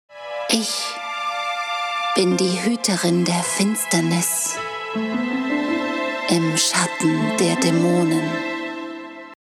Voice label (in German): Event Trailer, Sprecherin; Event Trailer | Dark Allure